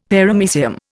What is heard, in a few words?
"Paramecium", Indian; Dictionary